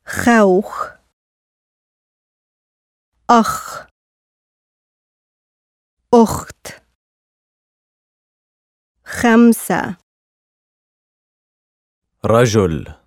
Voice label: Arabic Consonants Similar to Other European Languages